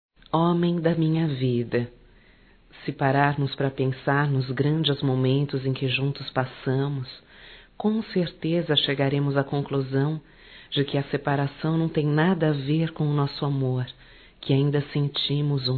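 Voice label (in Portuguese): Dia dos Namorados Reconciliação female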